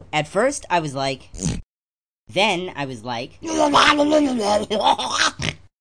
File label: at first i was like then i was like, MALE SPOKEN WORD; MALE MISC